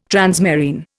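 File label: "transmarine", Indian English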